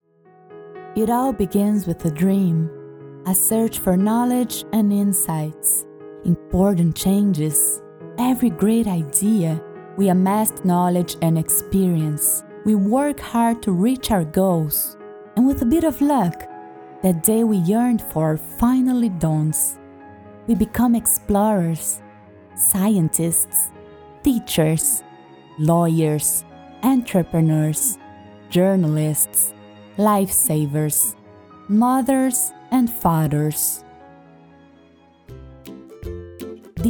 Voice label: English